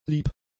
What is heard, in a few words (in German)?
"lieb", German; adjectives